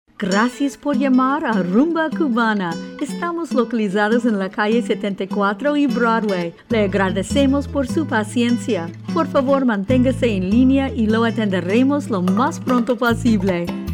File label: female; TELEPHONE VOICE OVER TALENT